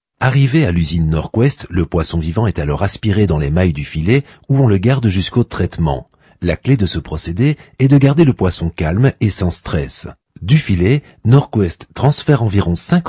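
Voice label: French male voice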